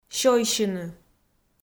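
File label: "сёйсьыны" Komi, female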